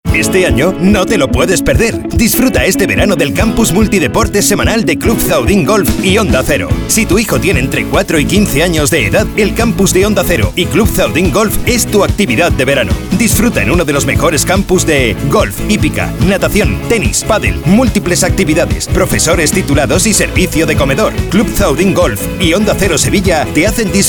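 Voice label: European Spanish Commercial; GoLocalise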